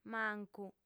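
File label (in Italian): "mancu", lingua sarda, fem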